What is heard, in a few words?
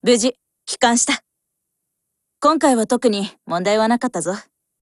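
Japanese, Voice; OPERATION OVER; GF Alarm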